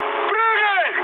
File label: bailout, mood high, speech ru; War Thunder